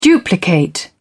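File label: "duplicate", British English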